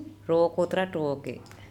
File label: "rowakotraTuoke", andamanese